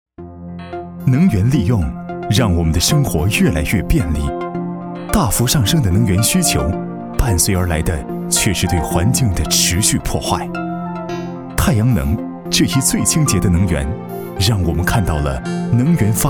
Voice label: 男 能源利用 轻松语气

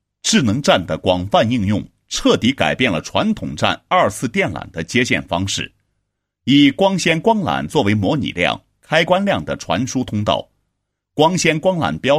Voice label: 男 项目解说, 光纤光缆标识及安装工艺解说

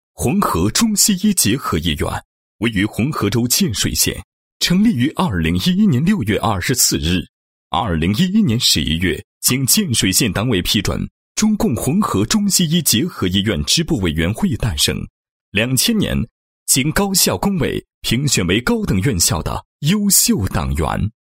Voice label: 男 党建, 中西医结合医院党建工作宣传; 某中西医结合医院

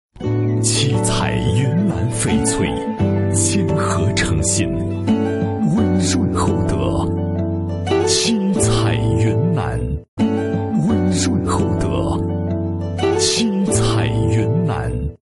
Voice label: 男 珠宝类配音 翡翠 插播广告; 七彩云南翡翠; CCTV《 东方时空》插播广告 北京卫视同步播出

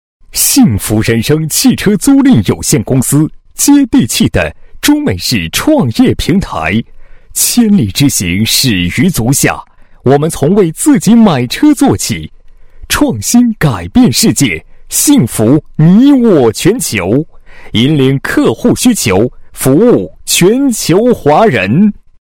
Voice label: 男 广告, 配音; 幸福人生汽车租赁

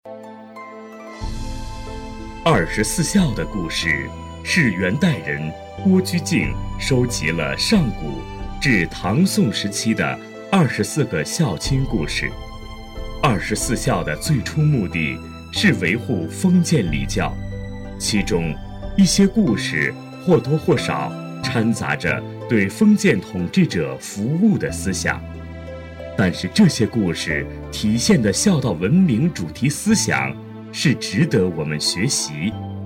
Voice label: 男 纪录片; 二十四孝

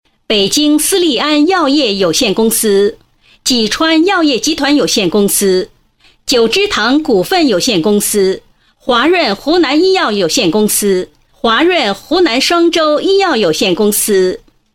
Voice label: 女, 颁奖; 怀仁20周年精诚合作奖获奖企业

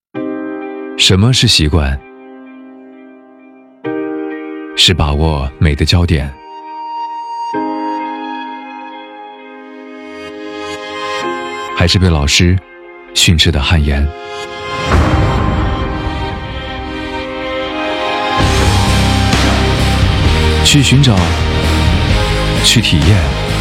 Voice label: 男 独白 励志; 我是摄影艺考生

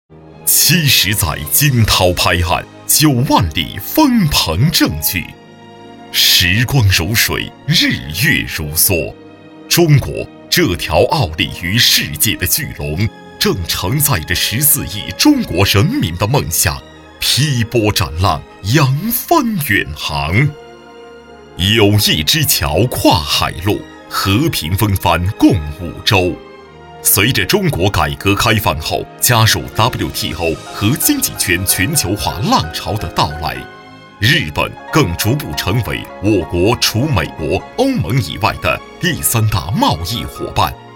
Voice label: 城市宣传, 大气浑厚

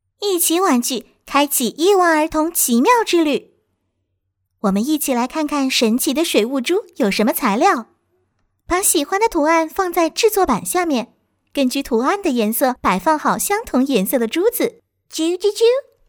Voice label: 女, 中文视频, 活泼儿童玩具

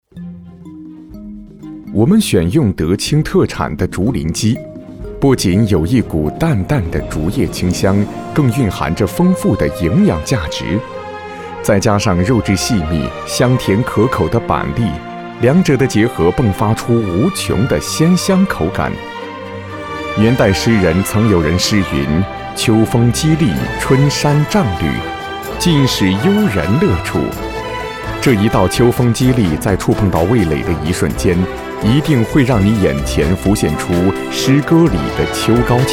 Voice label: 男, 舌尖; 竹林鸡

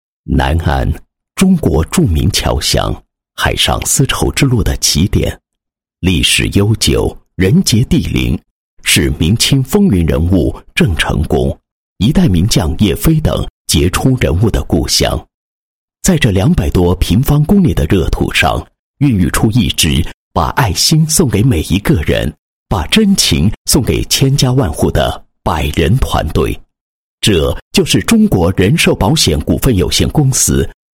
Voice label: 男 纪实

